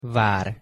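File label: "var", Hungarian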